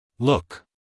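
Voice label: "look", American English, male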